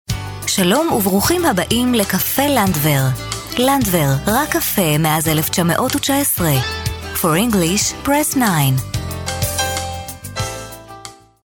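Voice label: Hebrew, female, narration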